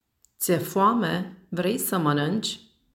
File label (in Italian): Rumeno